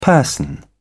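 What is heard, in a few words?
"person", British English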